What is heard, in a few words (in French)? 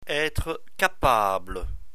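"être capable", French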